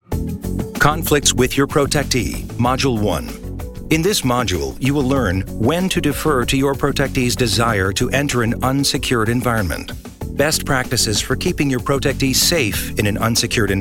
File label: e-learning; dhs dept of homeland security